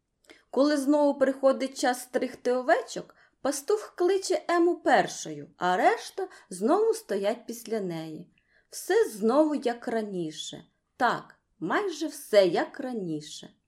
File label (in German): ukrainisch